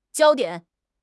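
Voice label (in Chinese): "焦点", Chinese; HSK6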